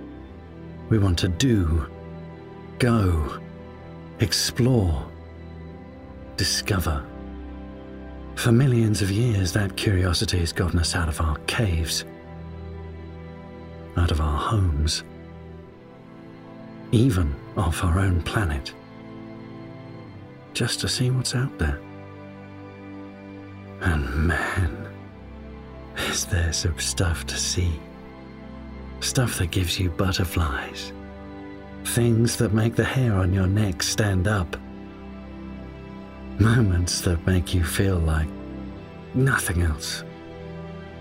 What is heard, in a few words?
Narration